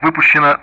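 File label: Speech Sound Russian; Common Player fired; DCS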